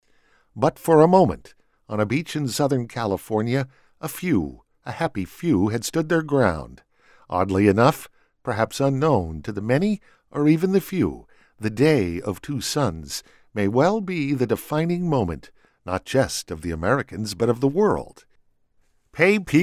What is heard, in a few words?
Voiceover Montage